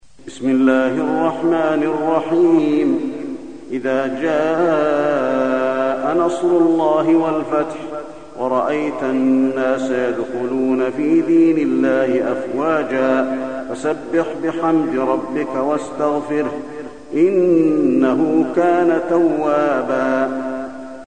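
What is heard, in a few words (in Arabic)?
النصر, تلاوة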